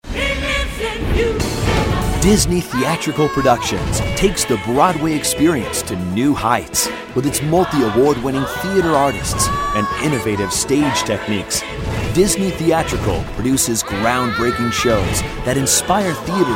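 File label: NAR, narration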